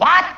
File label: "what", ANGRY